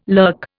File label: "lurk", Indian